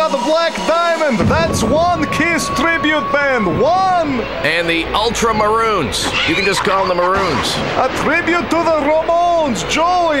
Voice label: Halloween Promo